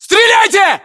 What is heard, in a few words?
order attack infantry, battlechatter, ru